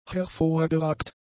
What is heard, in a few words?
"hervorgeragt", German; adjectives